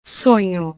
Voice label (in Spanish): "sonho", Portugués; sueño